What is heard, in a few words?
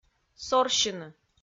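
"сорсьыны", Komi, female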